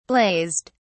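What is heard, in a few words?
"blazed", British English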